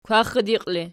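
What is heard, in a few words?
"kwaxxidiqi" ergative, Archi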